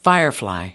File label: "firefly", us